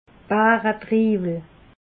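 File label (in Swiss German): "baretriiwel", Alsatian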